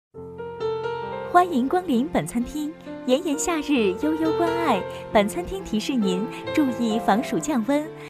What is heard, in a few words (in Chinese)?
餐厅温馨提示 女 温柔